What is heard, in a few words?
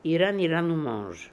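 "Iraniranumange", pronunciations; Fourth Way; Beelzebub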